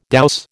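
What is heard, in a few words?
"douse", American English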